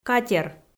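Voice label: "катер", Komi, female; kpv audio dict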